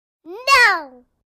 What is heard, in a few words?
3 Yr Old Girl Says No; kids play